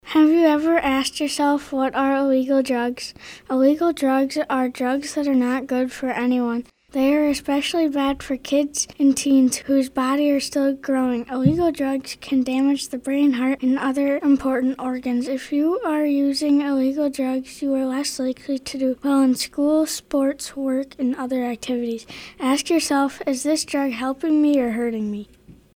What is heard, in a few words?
Announcement